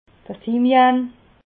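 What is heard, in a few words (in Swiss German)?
"thymian", Alsatian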